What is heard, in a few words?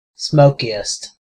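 "smokiest", American English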